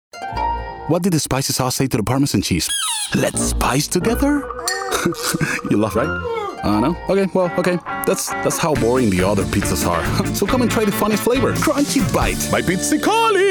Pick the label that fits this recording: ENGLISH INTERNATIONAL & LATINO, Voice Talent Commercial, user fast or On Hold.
ENGLISH INTERNATIONAL & LATINO